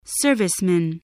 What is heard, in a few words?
"serviceman", spelling